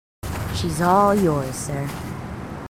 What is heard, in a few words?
marines female, marine tough